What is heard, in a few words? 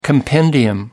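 "compendium", British English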